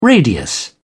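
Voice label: "radius", British English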